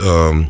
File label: "um", vox; E-Lab; X Static Goldmine 2; akai